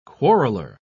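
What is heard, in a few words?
"quarreller", English, British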